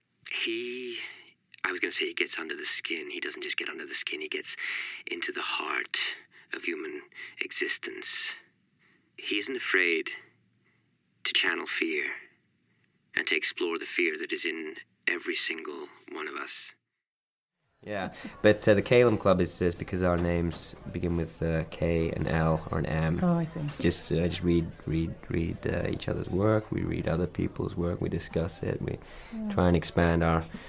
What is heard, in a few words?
General USA, US General; Talk to Me (Radio 4); HP Lovecraft